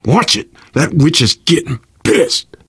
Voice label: witch getting angry, survivor voice

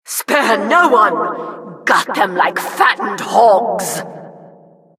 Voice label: announcer, vo, killstreak

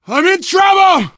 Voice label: help, player survivor voice biker

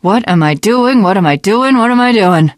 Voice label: jumping off bridge, teengirl; Left 4 Dead 2; Source Engine; video games

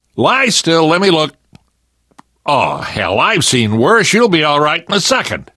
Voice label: revive friend, left4dead2 survivor voice namvet

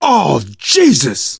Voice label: reaction negative, voice

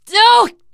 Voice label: horde attack, teen girl, survivor voice

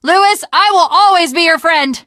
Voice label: jumping off bridge, teengirl; Left 4 Dead; Source Engine; video games

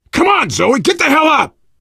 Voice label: revive friend loud, player survivor voice biker

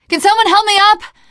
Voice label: ledge hang start, teengirl; survivor voice

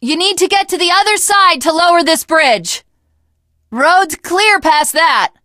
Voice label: initial meeting, teen girl; Left 4 Dead 2; Source Engine; video games

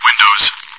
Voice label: windows, bot; Source Engine; cstrike

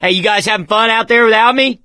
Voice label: call for rescue, survivor voice

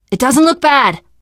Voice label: revive friend, survivor voice teen girl; Left 4 Dead 2; Source Engine; video games

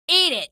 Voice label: kill, female; video games; npc; source engine; episodic; episode 1

